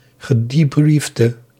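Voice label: "gedebriefte", Dutch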